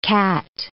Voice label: "cat", English